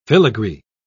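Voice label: "filagree", English, British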